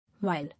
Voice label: "Wile", English

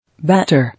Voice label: "Batter", English

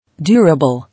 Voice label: "Durable", English

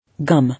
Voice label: "Gum", English

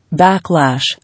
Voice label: "Backlash", English

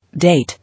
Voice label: "Date", English

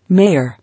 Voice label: "Mayor", English

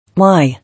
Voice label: "Lie", English

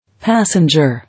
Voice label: "Passenger", English

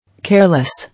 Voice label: "Careless", English

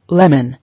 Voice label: "Lemon", English

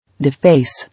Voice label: "Deface", English

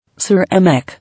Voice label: "Ceramic", English

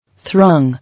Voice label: "Throng", English

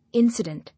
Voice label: "Incident", English

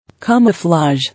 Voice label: "Camouflage", English

